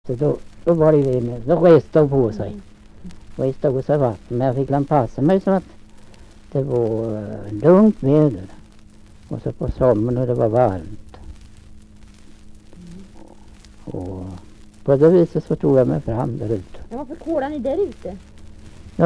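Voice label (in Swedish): Skagershult Närke, dialekter; Folkminnen; Svenska sagor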